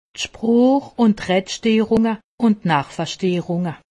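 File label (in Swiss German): "sproochsterrunge", Haut Rhin; medecine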